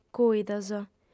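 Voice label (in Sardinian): "cùidos", female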